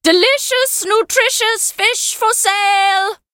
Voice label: fisherman, en-US; merch; Fate The Cursed King